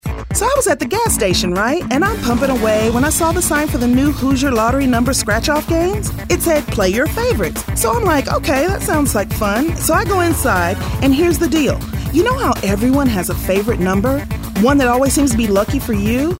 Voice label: high energy

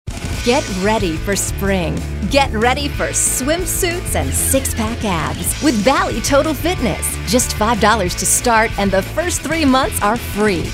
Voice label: upbeat

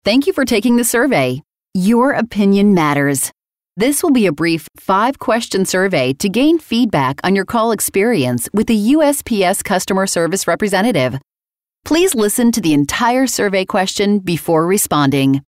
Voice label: IVR

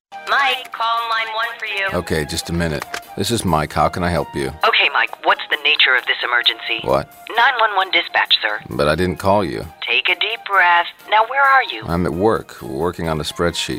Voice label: deadpan

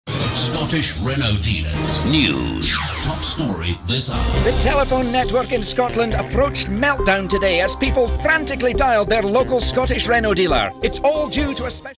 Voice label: high energy

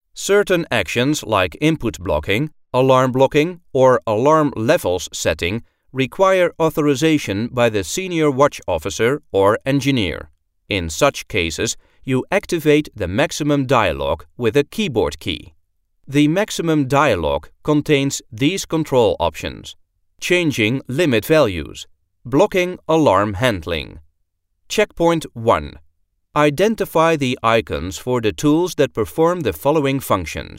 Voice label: Narrative European

